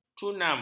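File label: "cunam", Malay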